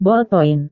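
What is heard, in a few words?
"bolpoin", Indonesian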